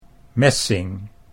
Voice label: "messing", Dutch